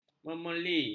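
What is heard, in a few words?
"memerli", Malay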